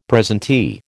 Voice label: "presentee", American